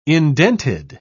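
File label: "indented", British English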